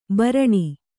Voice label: "ಭರಣ", Kannada; baraṇi; ಬರಣಿ; noun; a small casket